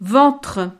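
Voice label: "ventre", French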